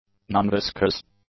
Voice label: "nonviscous", english; adjectives

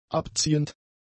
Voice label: "abziehend", german; adjectives

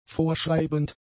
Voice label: "vorschreibend", German; adjectives

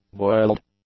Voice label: "boiled", English; adjectives

